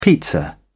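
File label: "pizza", British English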